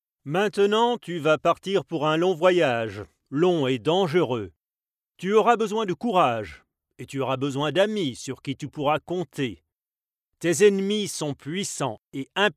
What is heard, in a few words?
Cartoons, French Voiceover; Zeichentrick